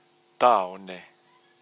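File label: "taone", Māori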